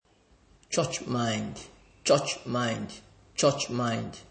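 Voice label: church mind, Nigerian Pidgin, male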